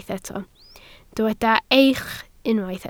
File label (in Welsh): "eich", Welsh